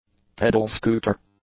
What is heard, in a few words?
"pedal-scooter", English; nouns